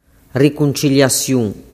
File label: "ricunciliasiun", dialettu